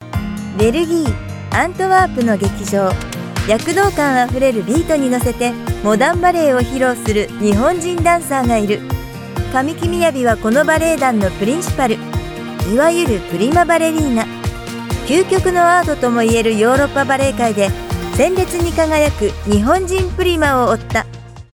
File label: Japanese Voice; Ballet